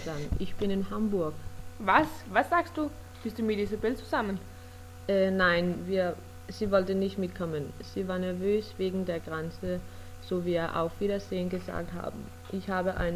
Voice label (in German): Gespräch zwischen Mutter